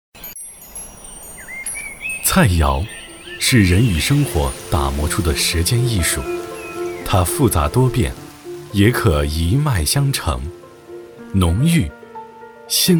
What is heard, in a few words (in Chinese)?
男 纪录片 舌尖美食; 抒情温暖 舌尖感; 解家河南菜